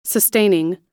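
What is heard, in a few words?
"sustaining", American English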